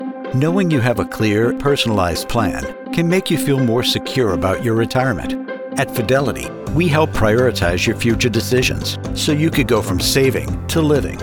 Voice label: english american e-learning